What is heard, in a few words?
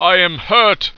i am hurt, pain, heroic